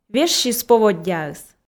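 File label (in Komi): "вежсис поводдяыс", Komi, female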